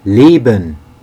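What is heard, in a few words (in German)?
"leben", German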